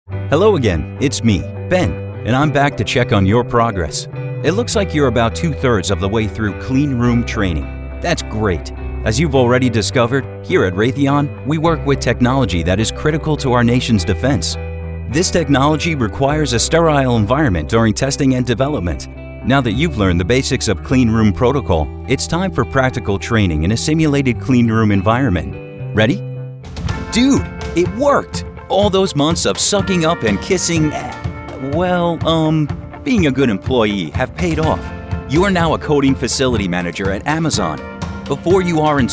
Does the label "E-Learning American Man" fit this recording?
Yes